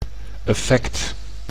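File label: "effect", Dutch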